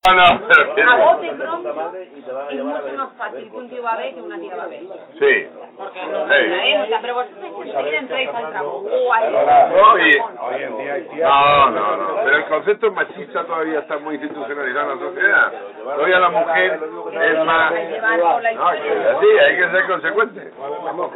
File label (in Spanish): Hombre babee